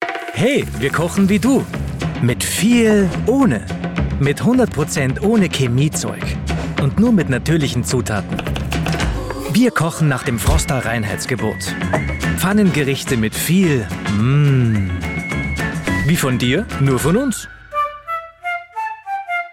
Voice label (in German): Werbung freundlich; Frosta; LOFT VOICES